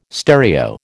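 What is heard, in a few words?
"stereo", American English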